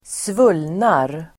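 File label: "svullnar", Swedish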